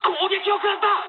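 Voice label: aircraft damaged mood high, speech japanese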